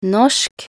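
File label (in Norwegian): "norsk", Norwegian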